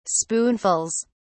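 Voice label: "spoonfuls", British English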